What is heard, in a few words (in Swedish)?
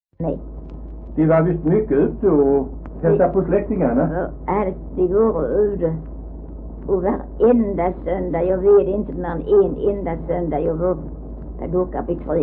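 Äsphult Skåne, dialekter svenska sagor; Folkminnen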